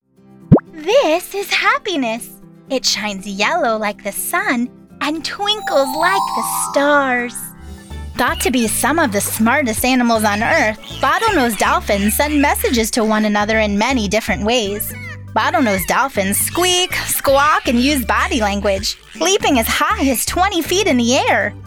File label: Kids Narration E Learning; Sounds Like Sunshine Voiceovers